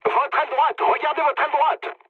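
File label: French right wing mood low; War Thunder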